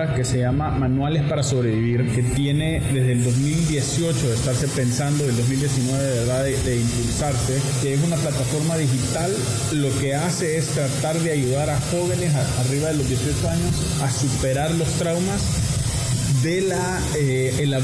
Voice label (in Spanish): PUBLICIDAD COMERCIAL; NUESTRO MUNDO KL